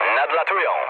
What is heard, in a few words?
enemy detected mood low, speech pol; War Thunder